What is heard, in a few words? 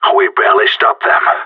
round won close, radio; GSG9